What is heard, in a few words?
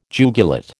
"jugulate", American